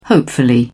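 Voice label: "hopefully", British English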